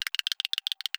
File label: Zombie